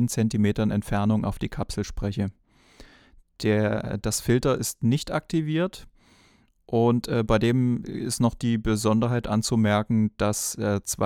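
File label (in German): shure ksm44a sprache niere male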